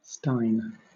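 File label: "stein", British English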